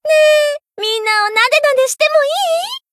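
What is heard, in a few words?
Voice win, Japanese; ilulu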